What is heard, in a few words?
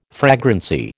"fragrancy", American